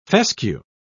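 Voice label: "fescue", British English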